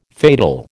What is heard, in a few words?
"fatal", American